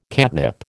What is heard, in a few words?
"catnip", American